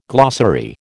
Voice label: "glossary", American